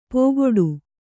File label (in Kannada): "ಪೋಗೊಡು", Kannada